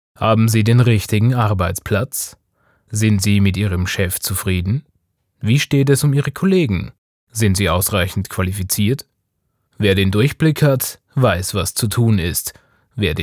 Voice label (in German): Werbung; Broker